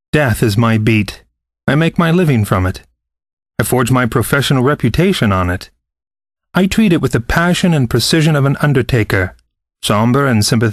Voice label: voice over crime fiction